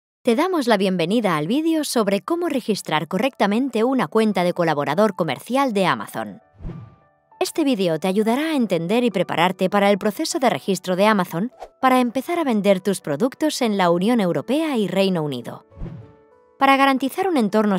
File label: E-learning, spanish voice